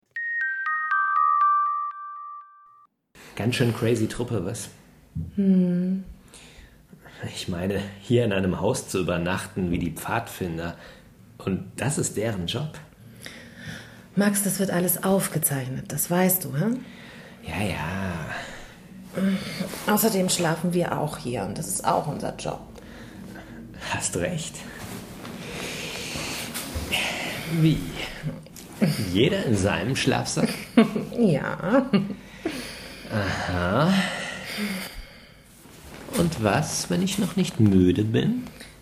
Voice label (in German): Sprecherdemo Hörspiel; Das Lufer Haus